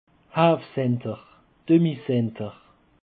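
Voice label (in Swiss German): "hafcenter", Alsatian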